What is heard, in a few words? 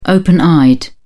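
"open eyed", British English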